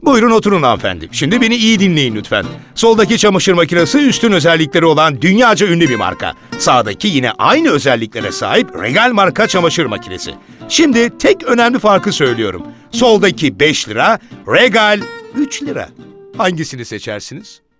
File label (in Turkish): neseli dinamik; seslendirme